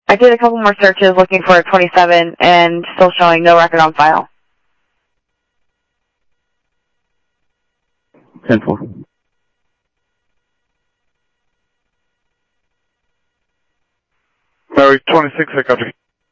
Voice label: scanner audio